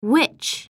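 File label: "witch", English; halloween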